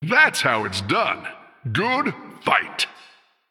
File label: Male Human Combat Win